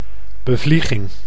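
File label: "bevlieging", Dutch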